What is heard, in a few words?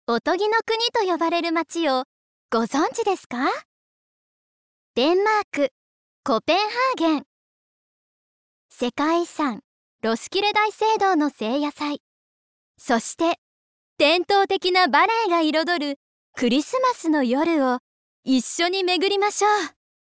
Narration Variety, ナレーション③バラエティ番組